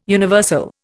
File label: "universal", Indian